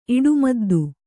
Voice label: "ಇಡುಮದ್ದು", Kannada

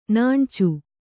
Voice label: "ನಾಣ್ಚು", Kannada

